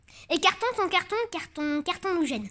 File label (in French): Virelangue